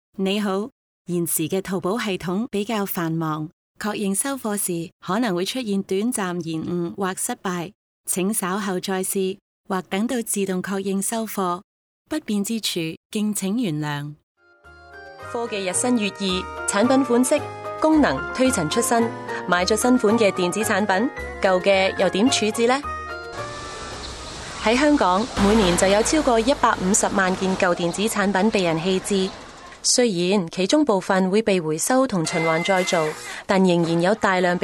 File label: Cantonese